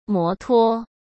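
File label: "摩托", Mandarin Chinese; chinese-flashcards; hsk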